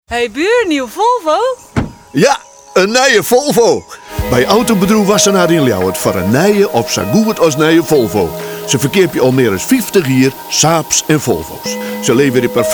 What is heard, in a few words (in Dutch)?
Radiocommercial; Autobedrijf Wassenaar